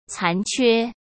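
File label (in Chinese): "残缺", Mandarin Chinese; chinese-flashcards; hsk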